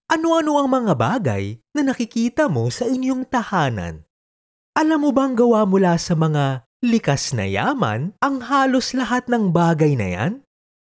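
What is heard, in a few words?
Tagalog, male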